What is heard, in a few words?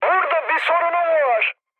aircraft damaged mood high, speech; War Thunder